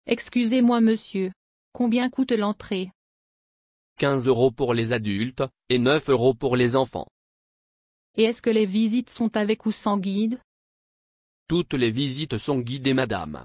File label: dialog